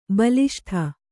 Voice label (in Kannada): "ಬಲಿಷ್ಠೆ", Kannada